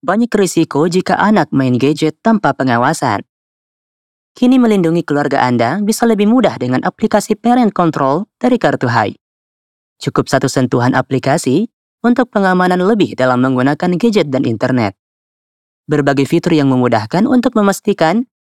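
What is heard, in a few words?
casual Indonesian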